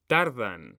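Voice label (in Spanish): "tardan", Spanish; mekanta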